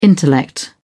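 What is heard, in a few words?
"intellect", British English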